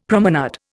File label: "promenade", Indian; Dictionary